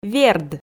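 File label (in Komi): "верд", Komi, female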